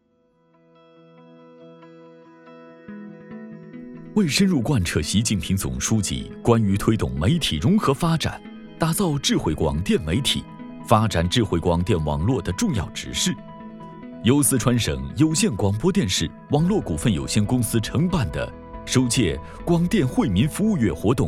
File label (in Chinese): 男 总结汇报专题